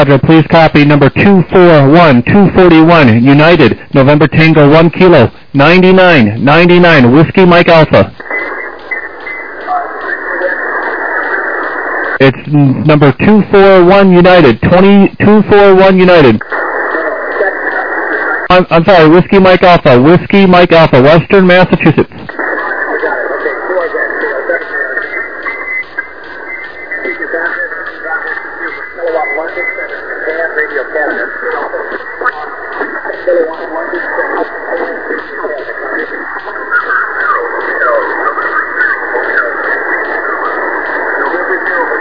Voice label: USB 14MHz

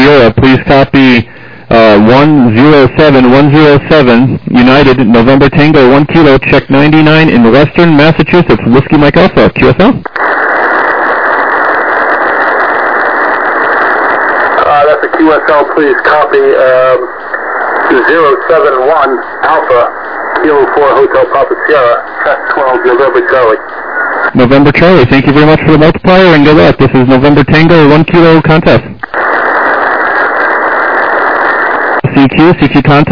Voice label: SSB LSB 7MHz

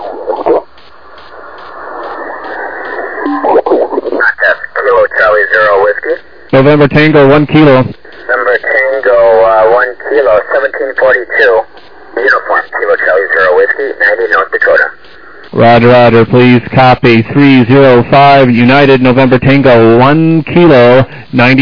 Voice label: SSB USB 21MHz

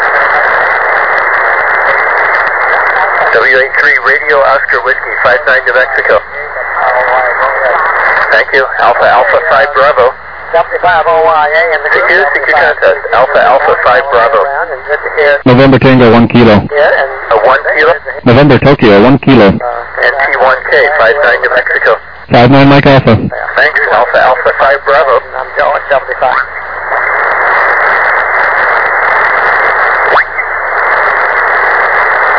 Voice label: USB 28MHz; ARRL10M

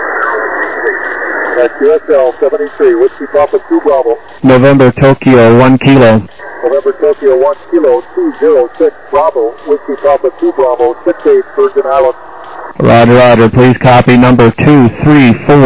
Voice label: SSB USB 21MHz

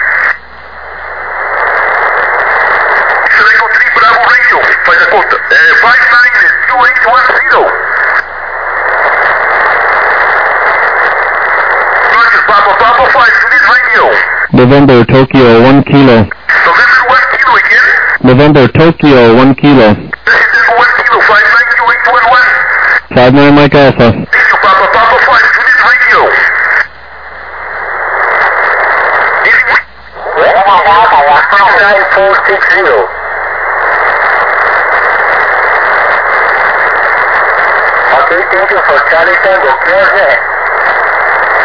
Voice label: USB 28MHz; ARRL 10M

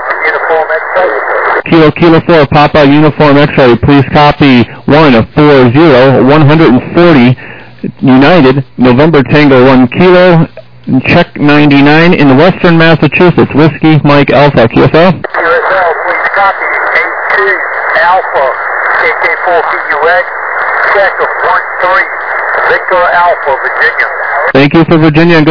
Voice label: SSB USB 14MHz

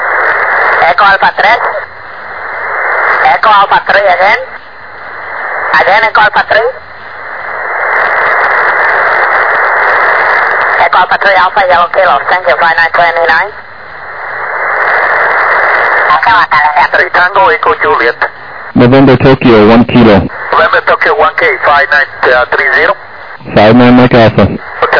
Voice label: USB, 28MHz; ARRL10M

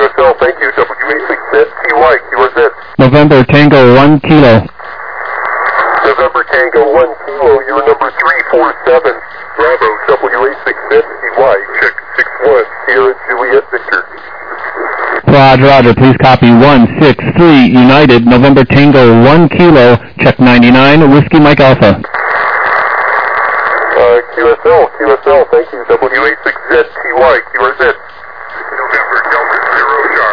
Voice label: USB, 21MHz

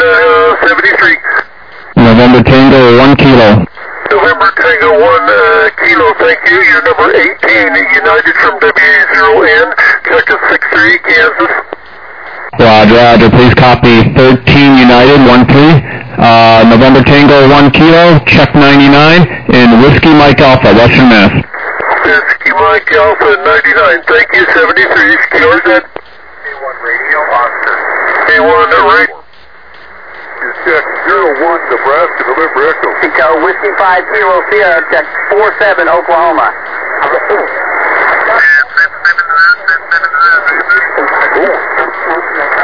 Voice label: USB, 21MHz

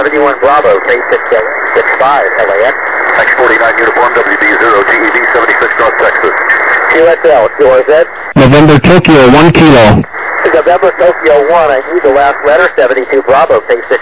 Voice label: SSB USB 21MHz

